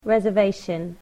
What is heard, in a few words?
"reservation", English